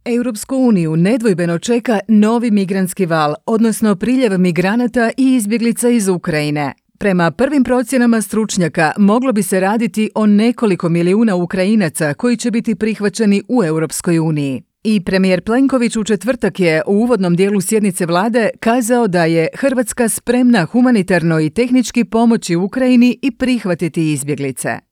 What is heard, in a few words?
croatian political ads